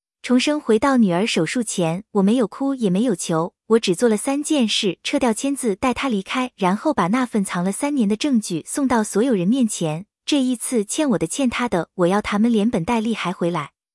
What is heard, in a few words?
cn revenge